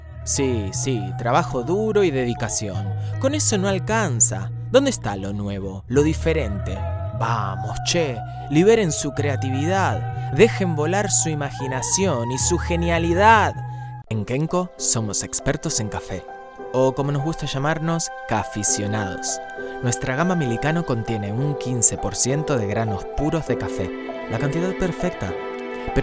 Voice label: Spanish Latin